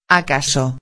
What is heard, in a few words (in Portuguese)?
"acaso", espanhol